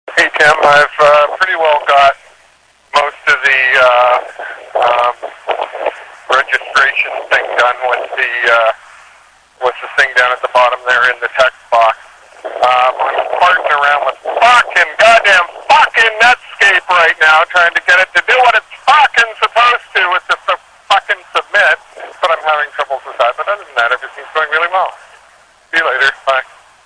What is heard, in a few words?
rant; netscape